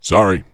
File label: corp sorry, vox; Dystopia; vox new